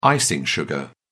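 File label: "icing sugar", British English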